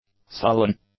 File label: "sullen", English; adjectives